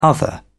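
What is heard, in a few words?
"other", British English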